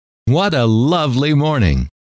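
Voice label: what A Lovely Morning, male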